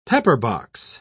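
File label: "pepperbox", British English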